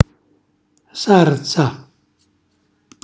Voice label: "sarza", Calabrese; cucina calabrese

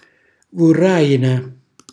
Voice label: "vurrajina", Calabrese; cucinacalabrese; glossario

